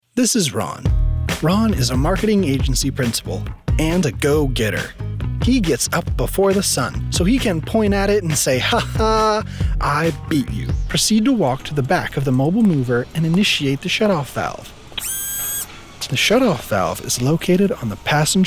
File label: Narration Voice